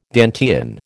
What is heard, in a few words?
"Dantean", American